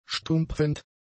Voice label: "stumpfend", German; adjectives